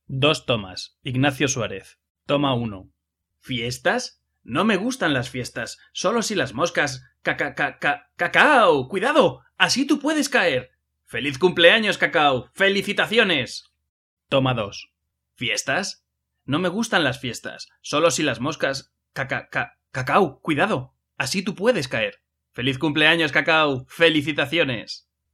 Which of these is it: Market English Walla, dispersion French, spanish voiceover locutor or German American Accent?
spanish voiceover locutor